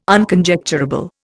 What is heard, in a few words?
"Unconjecturable", Indian